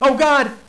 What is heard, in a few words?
"oh god", hostage; Counter-Strike 1.6